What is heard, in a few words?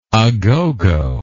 "agogo", English